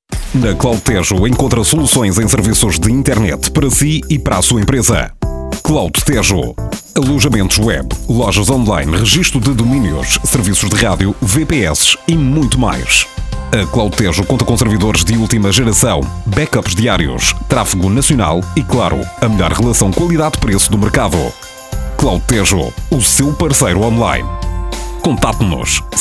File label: spot